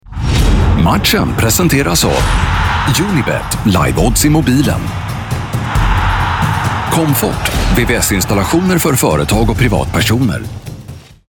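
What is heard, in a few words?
Swedish, male, commercial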